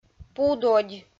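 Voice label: "пу додь", Komi, female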